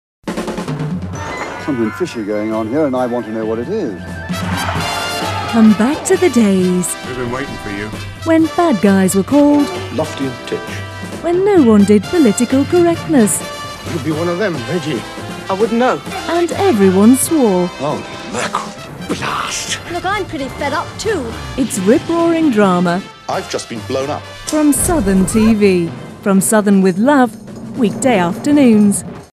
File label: Television Promos